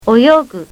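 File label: "oyogu", Japanese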